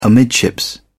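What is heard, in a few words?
"amidships", English, British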